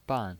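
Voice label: "ban", Mandarin Chinese, fourth tone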